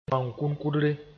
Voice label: "bõan-kʋnkʋdre", Moore